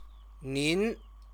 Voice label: "nin", Chinese